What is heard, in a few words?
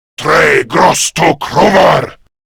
Attack Grineer Male; Warframe; Battle Barks